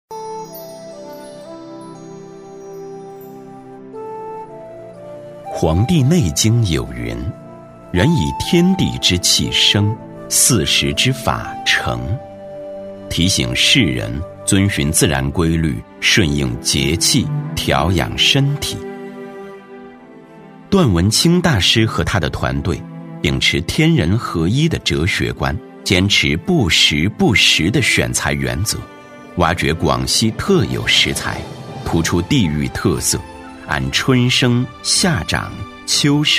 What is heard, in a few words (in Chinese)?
男-纪录片-醇厚历史感, 磁性低沉 质感磁性; 节气养生 不时不食